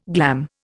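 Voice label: "glamorize", Indian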